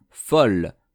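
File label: "folle", French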